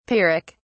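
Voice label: "pyrrhic", British English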